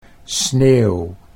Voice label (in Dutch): "sneeuw", Dutch